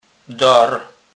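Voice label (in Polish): "dar", Kurpie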